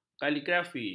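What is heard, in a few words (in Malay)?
"kaligrafi", Malay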